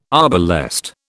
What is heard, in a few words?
"arbalest", British